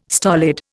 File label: "stolid", Indian